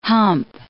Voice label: "hump", English